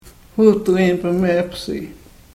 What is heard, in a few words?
karuk